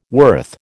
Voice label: "worth", American